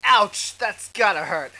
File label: happy - opponent did bad; Spades Deluxe; Hal